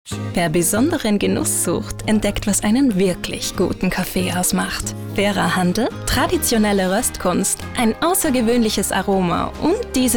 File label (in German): Frau OFF